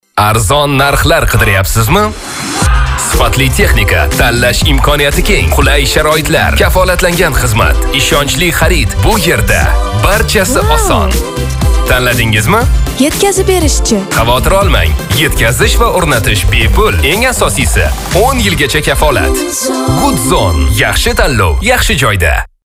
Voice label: uzbek voice over